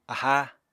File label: "aháa", Apatani